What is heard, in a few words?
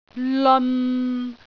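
"leon6", Cantonese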